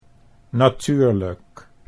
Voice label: "natuurlijk", Dutch